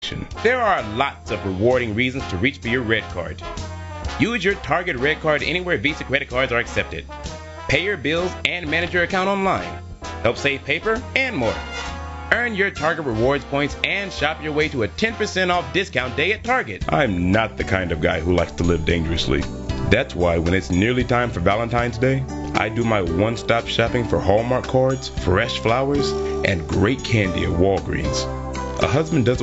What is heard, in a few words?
commercial